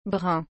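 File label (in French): "brun", French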